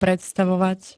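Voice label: "predstavovať", Slovak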